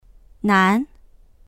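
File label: "nan2", Mandarin Chinese; 难; 難しい、～しにくい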